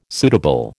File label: "suitable", American